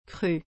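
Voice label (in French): "crue", French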